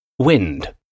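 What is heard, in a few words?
"wind", English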